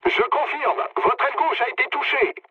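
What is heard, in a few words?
French left wing mood low; War Thunder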